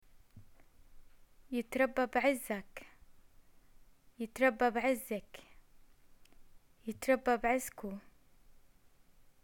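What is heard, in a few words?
greet new baby, Jordanian arabic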